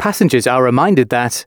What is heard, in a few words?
announcements, male